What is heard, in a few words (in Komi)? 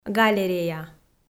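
"галерея", Komi, female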